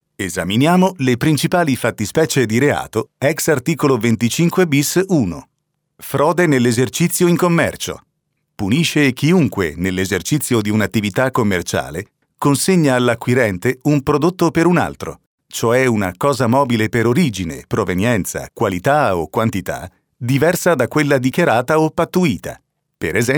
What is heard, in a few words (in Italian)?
e-learning